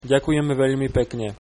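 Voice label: thanks very much Slovak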